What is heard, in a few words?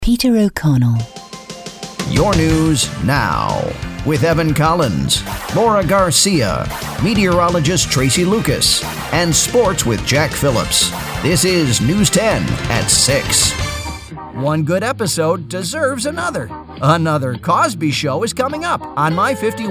TV station imaging; demo